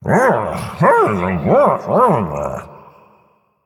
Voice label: Male Murloc Threaten, zh

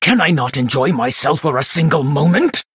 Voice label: Male Blood Elf Threaten

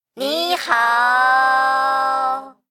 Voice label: Male Mech Concede

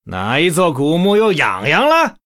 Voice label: Male Human Start, zh

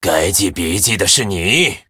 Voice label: Male Human Mirror Greetings, zh

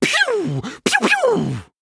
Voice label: Male Human Attack; en

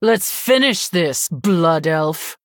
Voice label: Female Human Start; HERO; Valeera

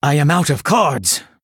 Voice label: Male Blood Elf No Cards; en

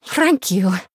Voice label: Female Murloc Thanks; en

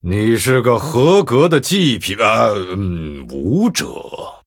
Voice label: Male Night Elf Well Played; zh

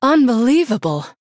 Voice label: Wow, Female Human; en

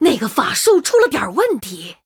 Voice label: Female Murloc Start, zh

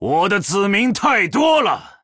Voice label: Male Troll ERROR FULL MINIONS, zh

